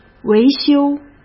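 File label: "维修", Mandarin Chinese; chinese flashcards; hsk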